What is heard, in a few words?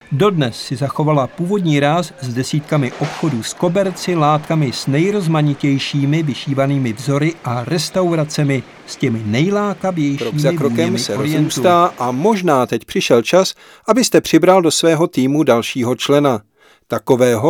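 Czech General; GoLocalise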